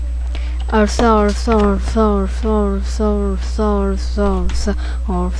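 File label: "also", spoken word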